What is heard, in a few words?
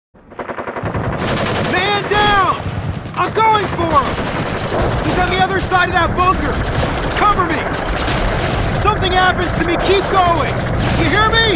voiceover animation; New York